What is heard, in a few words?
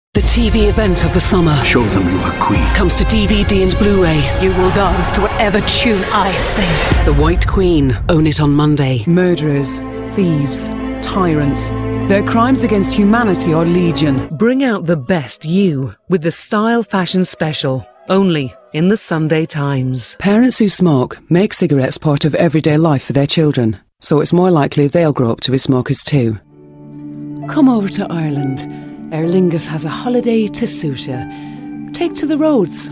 COMMERCIALS